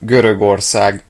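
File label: "greece", Hungarian; gcompris; geography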